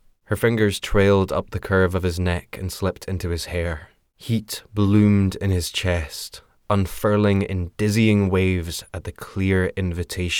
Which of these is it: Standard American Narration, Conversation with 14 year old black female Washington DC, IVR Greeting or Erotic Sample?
Erotic Sample